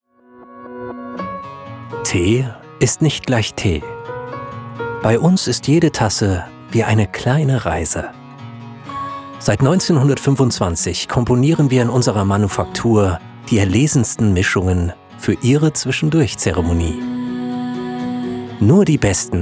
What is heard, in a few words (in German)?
werbespot, sprecher; teejo